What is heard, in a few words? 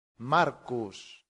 "marcus", Latin; santillana